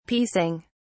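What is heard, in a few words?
"piecing", British English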